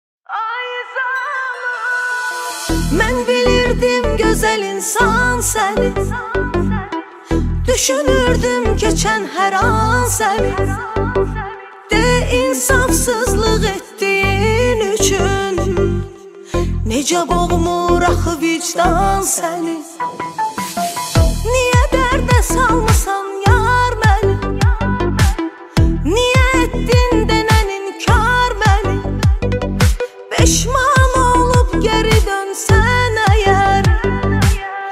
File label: rap version